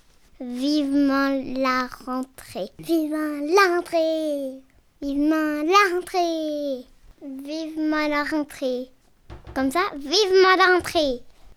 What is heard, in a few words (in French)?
enfant; Cause Commune; libre à vous